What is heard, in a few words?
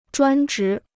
"专职", Chinese